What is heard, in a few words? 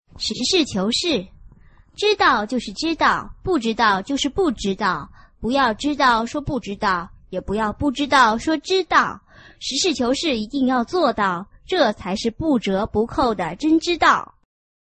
chinese tongue twister